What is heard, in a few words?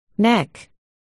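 "neck", spoken word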